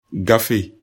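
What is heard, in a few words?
"gafe", Bambara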